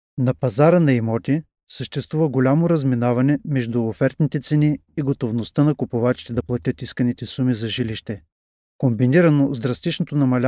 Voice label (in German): Nachvertonung Film Sprecher Bulgarisch